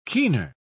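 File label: "keener", British English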